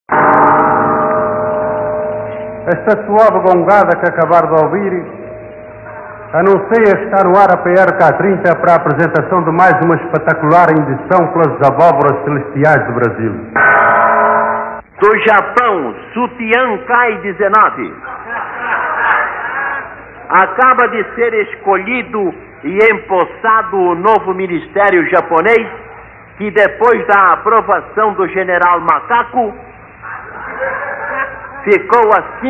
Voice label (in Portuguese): noticias